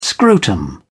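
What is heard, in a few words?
"scrotum", British English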